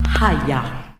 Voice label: "hiya", voice